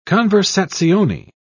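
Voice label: "conversazione", British English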